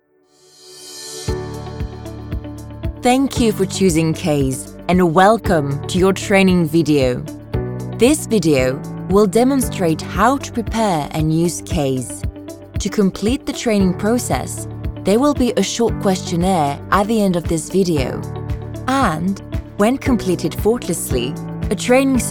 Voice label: ENG Narrative